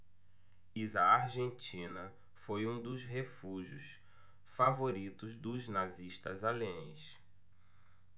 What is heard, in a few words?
PORTUGUESE BRAZIL